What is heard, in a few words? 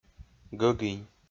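"гӧгинь", Komi, male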